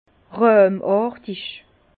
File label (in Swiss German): "rahmartig", Bas Rhin; vin; crémeux